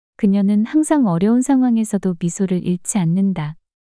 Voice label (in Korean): 그녀는 항상 어려운 상황에서도 미소를 잃지 않는다 문장 여자